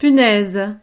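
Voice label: "punaise", French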